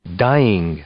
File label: "dying", English; verb